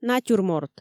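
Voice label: "натюрморт", Komi, female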